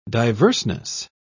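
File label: "diverseness", British English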